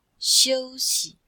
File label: "xiu1xi5", chinois; Cours-de-Chinois